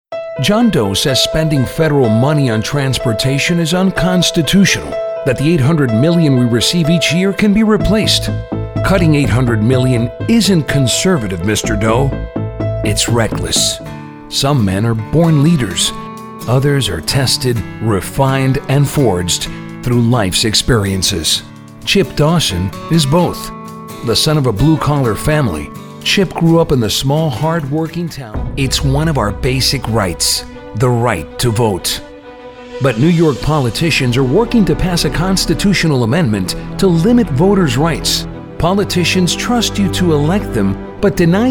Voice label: Political ENG